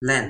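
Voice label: "len", Toki Pona; linku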